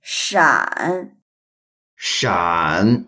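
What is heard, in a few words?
"shan3", Mandarin Chinese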